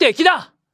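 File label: battle male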